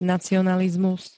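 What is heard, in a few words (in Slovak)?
"nacionalizmus", Slovak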